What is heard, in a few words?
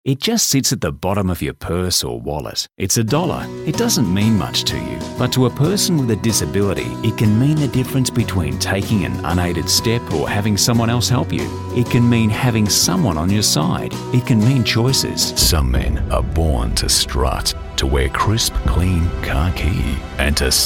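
male, Australian English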